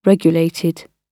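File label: "regulated", British English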